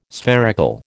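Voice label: "spherical", American English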